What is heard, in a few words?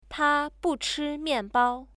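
"ta bu chi mianbao", Chinese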